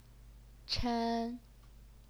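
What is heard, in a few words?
"chen", first tone, Chinese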